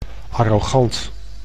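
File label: "arrogant", Dutch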